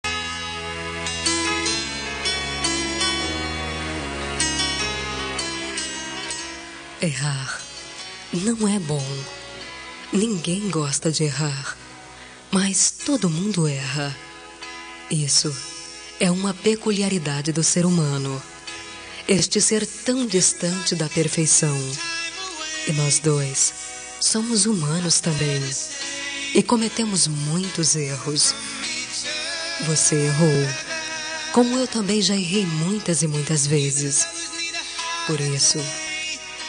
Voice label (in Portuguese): aceito desculpas fem, Telemensagem Resposta – Voz Feminina; Telemensagens para todas as ocasiões